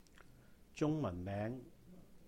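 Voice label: "中文名", Cantonese; Chinese name; Jūng-Màhn-méng; zung1 man2 meng2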